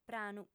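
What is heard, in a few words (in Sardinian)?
"pranu", female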